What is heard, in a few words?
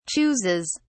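"chooses", British English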